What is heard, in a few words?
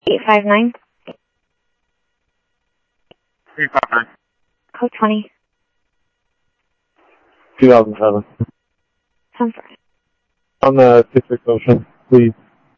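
Lakes Sheriff Lake Patrol, scanner audio trunk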